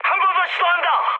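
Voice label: Korean bomb failure mood high, speech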